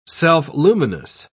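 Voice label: "self-luminous", British English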